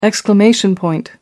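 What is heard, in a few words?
"exclamation point", British English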